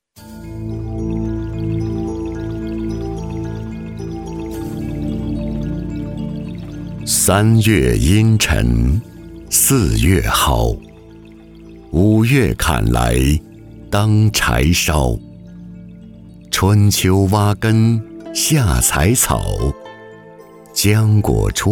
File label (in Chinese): 男 纪录片 中草药